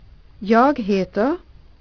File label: MYNAMEIS, SWEDISH